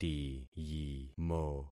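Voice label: "tiyimo", concatenated syllables; Affixing experiment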